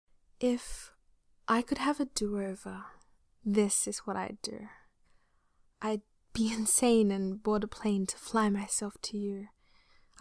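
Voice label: Poetry, Rhyming, female; If I could have a do-over; Haven't written poetry since high school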